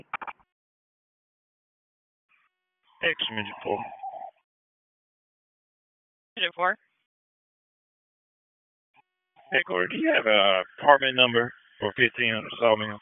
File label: PS TAC 18, P25 recordings; wake